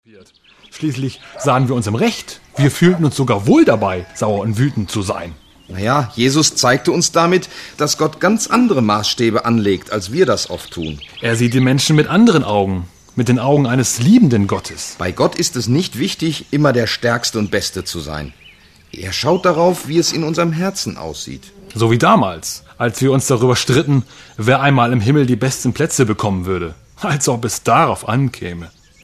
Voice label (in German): sprechszene